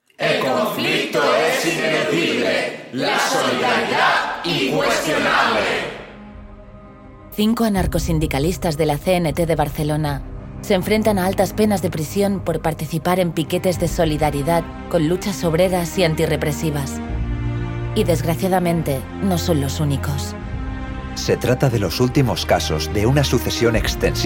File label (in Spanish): cuña castellano; CNT